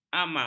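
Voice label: "amang", Malay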